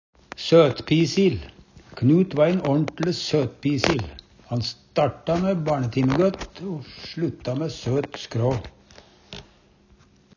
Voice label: søtpisil, Numedalsmål

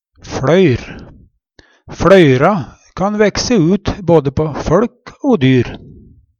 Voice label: "fLøyr", Numedalsmål; Fløyra kan vekse ut både på føLk o dyr.

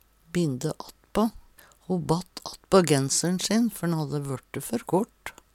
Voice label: binde attpa, Numedalsmål; Ho batt attpå genser`n sin før`n hadde vørte før kort

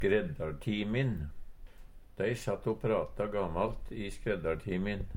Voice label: "skreddartimin", Numedalsmål; Substantiv hankjønn; Tida (dagen, året, merkedagar)

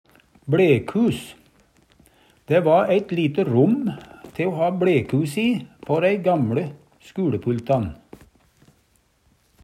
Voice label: blekhus, Numedalsmål; Substantiv inkjekjønn; Personleg utstyr, klede, sko; Dæ va æit lite rom te o ha bLekhuse i på ræi gamLe skuLepultan.

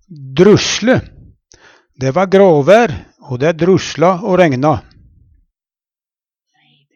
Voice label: "drusle", Numedalsmål

